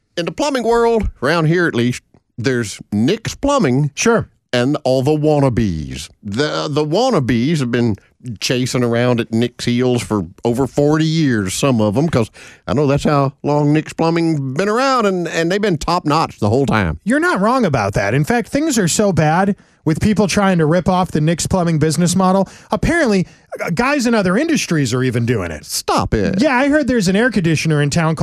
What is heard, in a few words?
RADIO AD